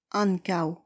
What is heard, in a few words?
"ankaux", esperanto; vortoj